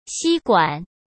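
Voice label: "吸管" Mandarin Chinese; hsk; chinese-flashcards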